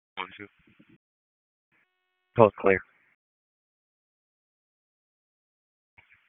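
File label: Wake County EMS Dispatch, p25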